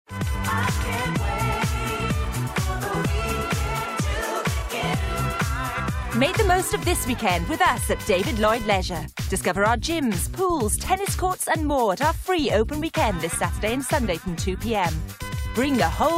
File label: english